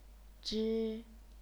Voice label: "zhi1", Chinese pinyin

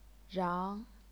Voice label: "rang2", Chinese pinyin

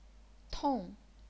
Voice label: "tong4", chinese pinyin

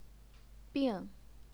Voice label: "bing4", Chinese pinyin

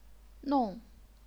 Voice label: "nong4", chinese pinyin

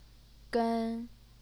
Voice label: "gen1", chinese pinyin

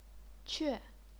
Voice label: "que4", chinese pinyin

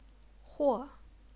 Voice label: "huo4", chinese pinyin

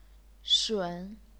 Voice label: "shun3", chinese pinyin

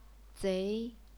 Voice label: "zei2", chinese pinyin

